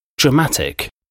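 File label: "dramatic", English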